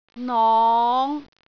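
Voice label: "nong5", Cantonese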